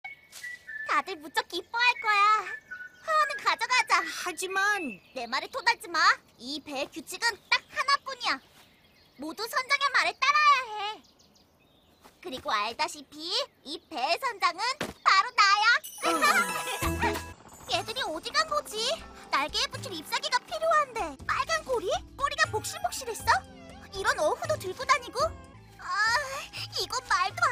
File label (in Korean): ani 여아 귀엽고 똘똘한